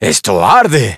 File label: Spanish - dialogue, Expressions